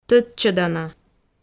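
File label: "тӧдчӧдана", Komi, female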